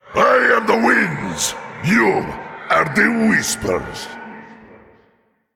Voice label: Male Elemental Emote Start; CThun